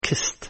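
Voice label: "kissed", English word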